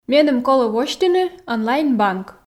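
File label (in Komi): меным колӧ восьтыны онлайн банк, Komi, female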